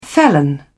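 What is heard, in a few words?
"felon", British English, dictionary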